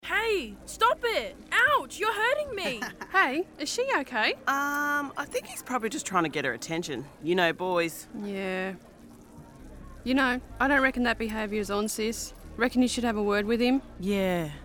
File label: Indigenous radio; Phase three